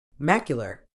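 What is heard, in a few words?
"macular", British English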